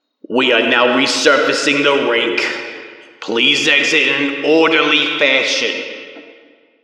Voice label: Intercom cap closed; We are now resurfacing the rink. Please exit in an orderly fashion.